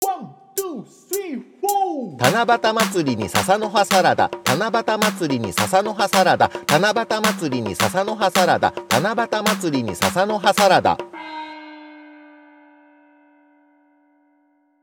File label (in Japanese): 早口言葉リズム（中級編）